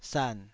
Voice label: "san3", taiwanese

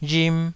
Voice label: "rhim2", taiwanese

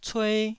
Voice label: "cue1", Taiwanese syllable

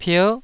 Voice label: "pier9", taiwanese

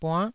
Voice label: "buann9", taiwanese Syllables

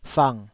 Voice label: "fang3", taiwanese syllable

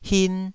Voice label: "hin2", Taiwanese syllable

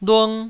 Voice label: "lung1", Taiwanese syllable

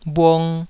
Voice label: "bhung2", taiwanese Syllables

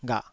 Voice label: "ghah3", Taiwanese syllable

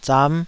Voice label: "zam5", taiwanese syllables

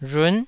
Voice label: "rhun9", taiwanese

